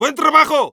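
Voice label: Medic good job, spanish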